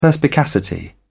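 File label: "perspicacity", British English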